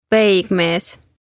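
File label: "Peigmees" Estonian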